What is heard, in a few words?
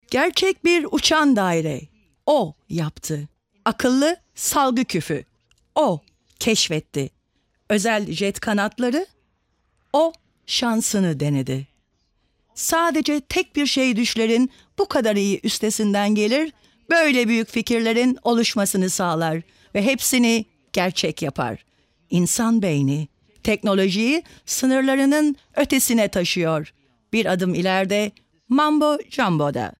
Turkish